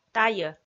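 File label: "тайӧ", Komi, female; audio dict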